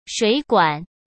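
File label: "水管" Mandarin Chinese; chinese flashcards; hsk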